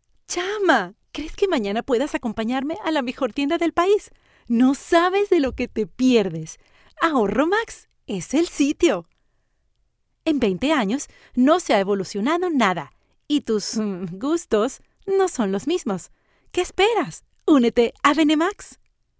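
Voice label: Spanish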